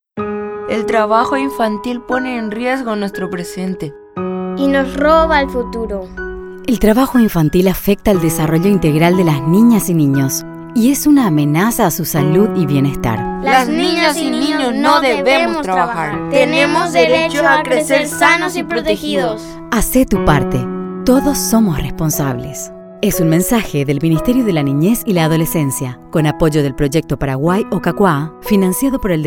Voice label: Spot Radio